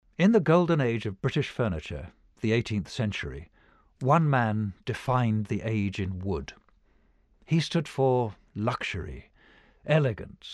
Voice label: narr dry; Chippendale

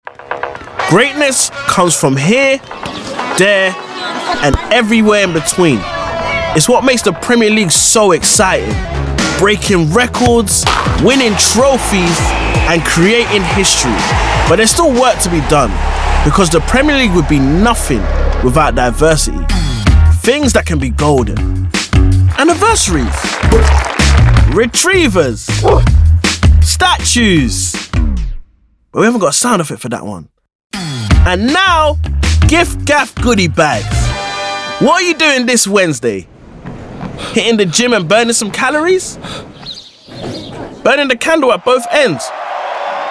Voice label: Commercial Mix

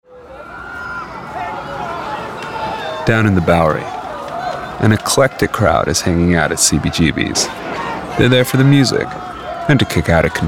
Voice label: Commecial; River Island

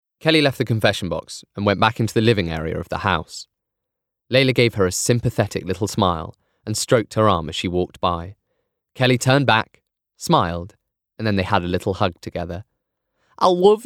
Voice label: AUDIOBOOK; Dead Famous

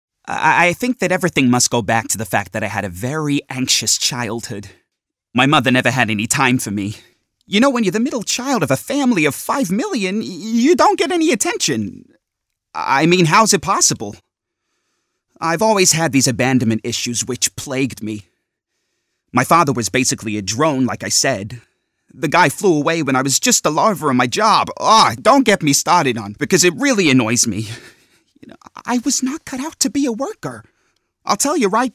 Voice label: Character gaming

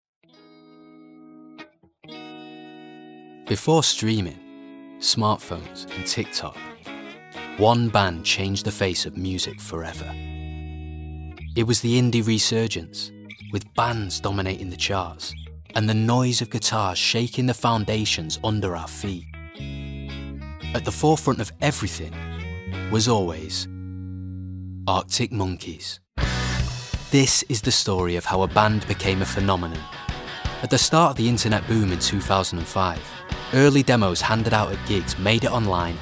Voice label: Documentary

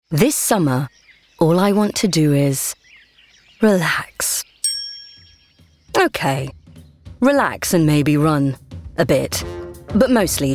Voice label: Commercial Reel

